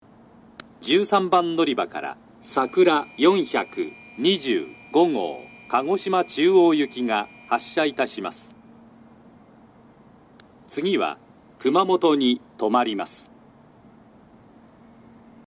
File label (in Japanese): 13番のりば発車放送, 新幹線ホーム; 新鳥栖; さくら425号 鹿児島中央行き